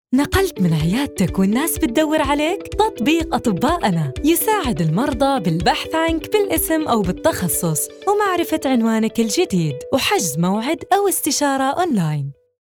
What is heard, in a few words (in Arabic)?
خليجي